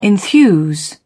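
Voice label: "enthuse", English, British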